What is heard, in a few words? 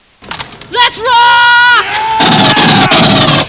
male taunt; starsiege